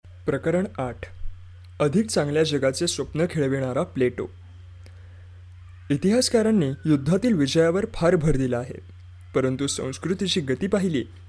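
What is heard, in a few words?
Marathi M Narration, male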